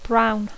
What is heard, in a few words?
"brown", English